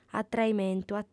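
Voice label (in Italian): "atraimentu", lingua sarda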